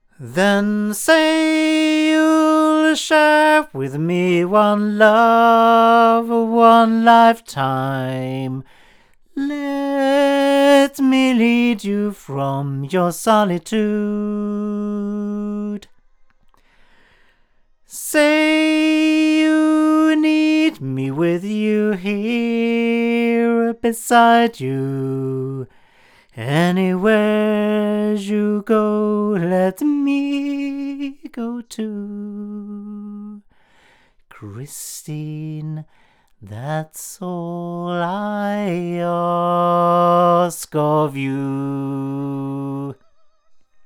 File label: male; BLUE Babybottle; microphones